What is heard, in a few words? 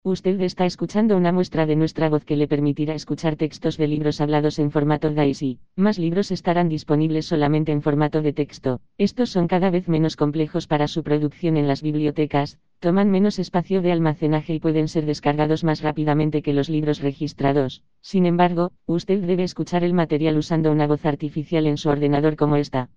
EuroSpanish Female